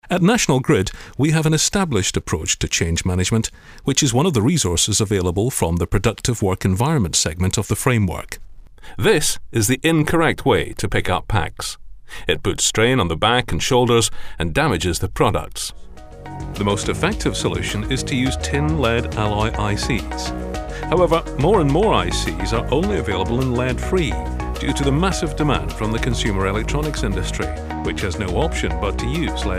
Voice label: video presentation

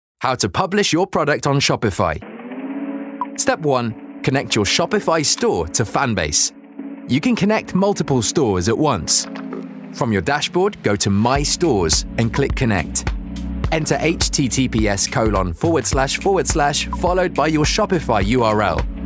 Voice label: tutorials

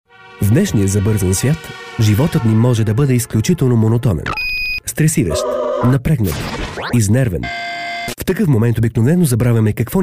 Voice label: bulgarian male commercial

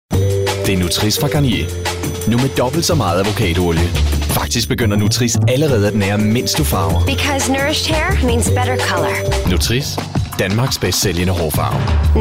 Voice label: danish male commercial

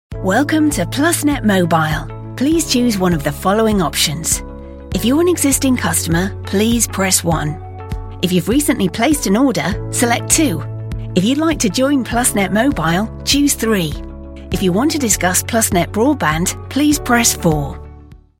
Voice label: telephone

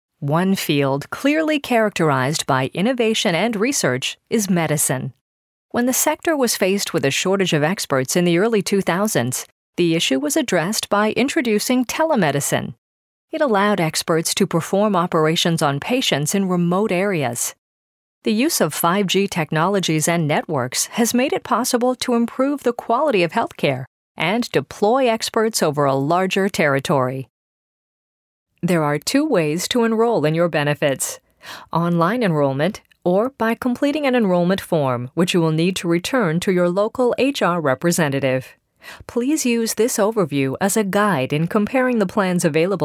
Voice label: canadian female, narration

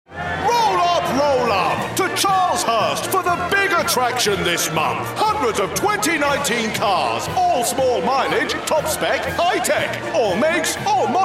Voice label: circus ringmaster

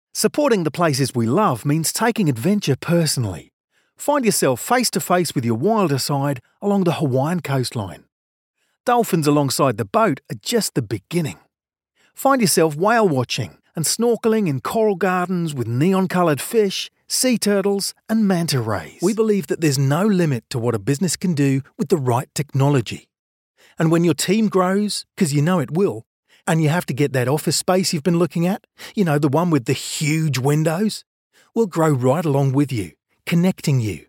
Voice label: australian accent

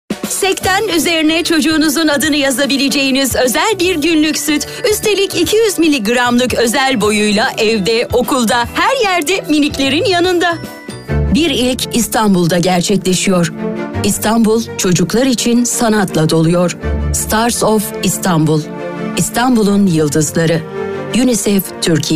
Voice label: turkish female voices

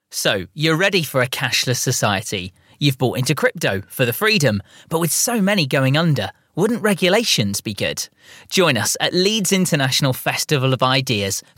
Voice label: event promotion

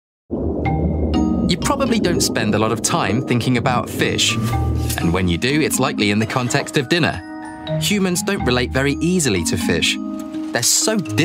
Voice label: documentary

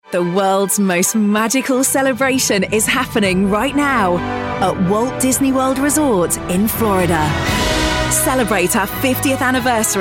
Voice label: commercial

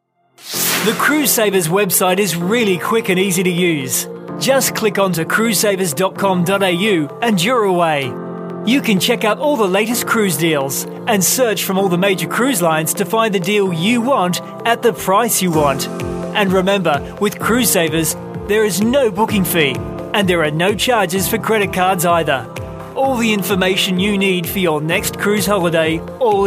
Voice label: australian male, narration

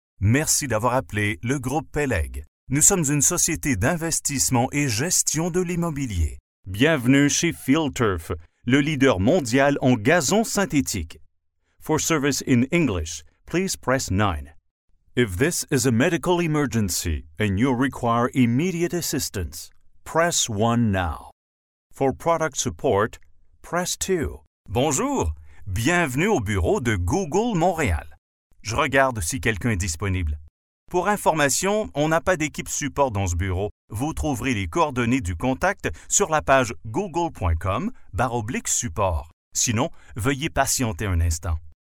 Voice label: french canadian male, on hold

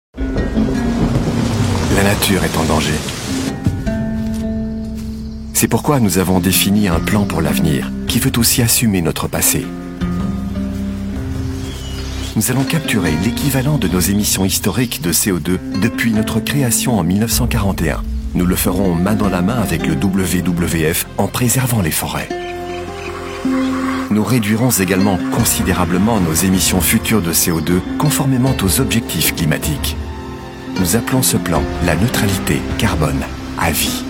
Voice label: belgian french voiceover belgian french soft read

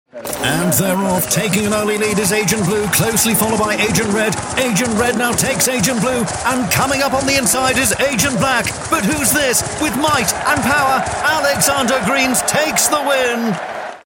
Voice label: horse racing commentator

